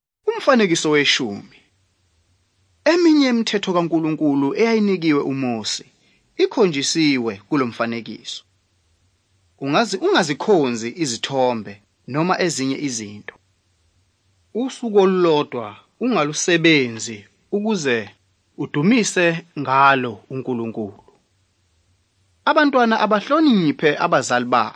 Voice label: zulu bible; good news